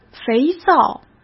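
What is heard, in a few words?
"肥皂", Mandarin Chinese; hsk; chinese-flashcards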